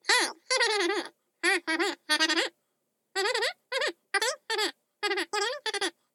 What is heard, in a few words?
Chipmunk Telephone Gibberish